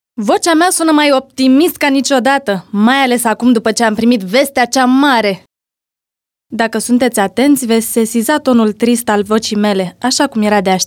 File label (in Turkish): Romence Kadin, seslendirme; kadin sesler